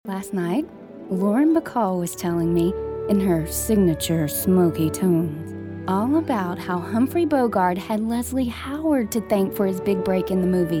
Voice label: Narration